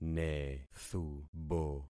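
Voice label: "nethubo", concatenated syllables; Affixing experiment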